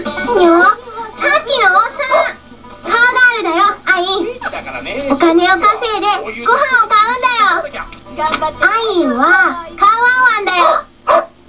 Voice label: Japanese